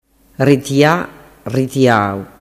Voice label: "retia ritiau", dialettu